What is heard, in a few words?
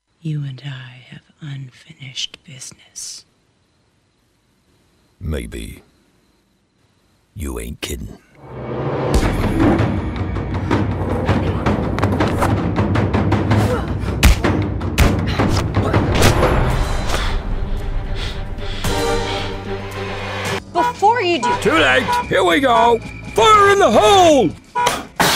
Animation